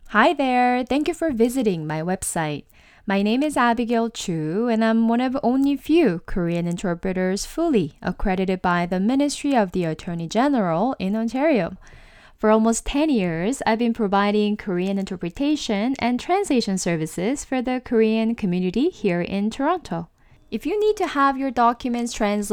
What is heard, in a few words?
Intro English